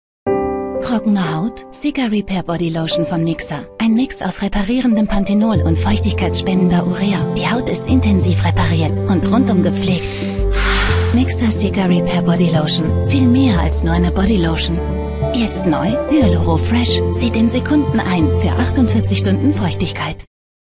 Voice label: TV Spot Bodylotion OFF WERBLICH KOSMETISCH SINNLICH; Mixa Cica Repair

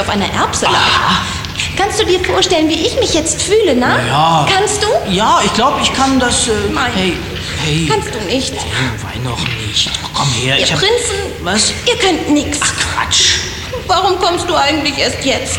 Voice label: Hoerspiel Rolle Prinzessin JUGENDLICH KINDLICH; WDR; Baerenbude

